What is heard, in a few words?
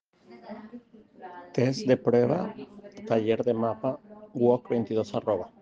Voice message